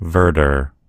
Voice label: "verdure", en US; gcompris

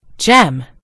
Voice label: "gem", en GB; gcompris

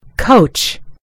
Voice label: "coach", en US; gcompris

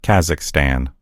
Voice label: "kazakhstan", en US; gcompris; geography

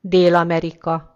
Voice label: "south america", hu; gcompris; geography

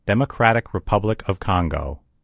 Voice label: "democratic republic of congo", en_US; gcompris; geography

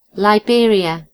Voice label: "liberia", en GB; gcompris

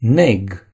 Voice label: "wart", ro; gcompris

